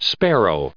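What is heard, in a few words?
"sparrow", English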